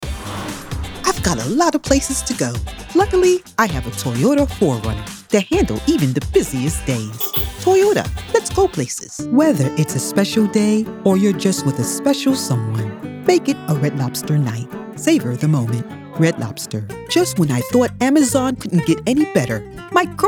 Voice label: Women Commercial

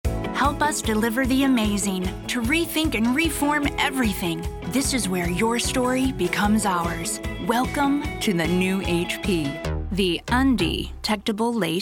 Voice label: Women Commercial

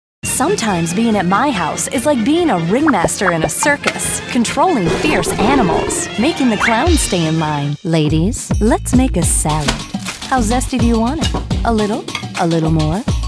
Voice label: Commercial, Women

